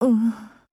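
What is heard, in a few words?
LOWMOOD, Japanese; Agent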